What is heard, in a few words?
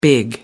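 "big", English, British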